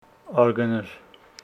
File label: Homshetsi teeth